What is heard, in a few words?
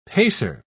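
"pacer", British English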